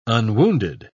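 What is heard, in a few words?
"unwounded", English, British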